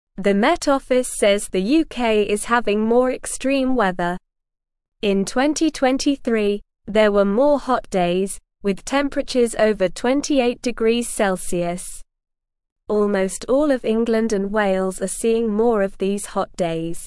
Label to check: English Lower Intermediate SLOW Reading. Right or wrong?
Right